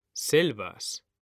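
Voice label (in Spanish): "selvas", Spanish; mekanta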